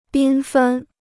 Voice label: "缤纷", Chinese

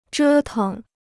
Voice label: "折腾", Chinese

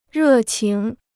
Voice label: "热情", Chinese

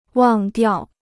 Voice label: "忘掉", Chinese

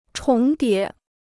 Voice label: "重叠", Chinese

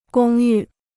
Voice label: "公寓", Chinese

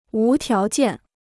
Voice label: "无条件", Chinese

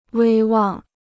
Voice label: "威望", Chinese

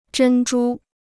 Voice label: "珍珠", Chinese

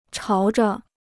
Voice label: "朝着", Chinese

